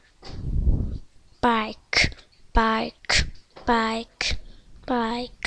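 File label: "bicycle", spoken word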